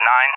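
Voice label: "9", English, USA; Digits; DCS; Allied Flight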